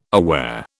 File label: "aware", British